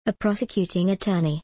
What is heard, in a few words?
en gb a prosecuting attorney